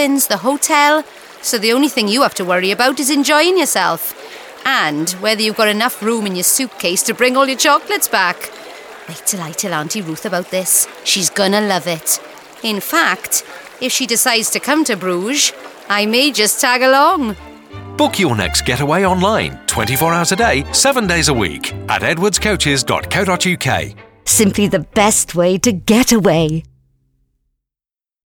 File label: female English with Welsh accent coaches; short continental breaks; devine voices